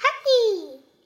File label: happy, vocal; Techmino